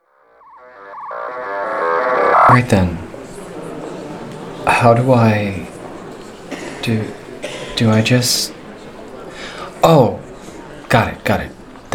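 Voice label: English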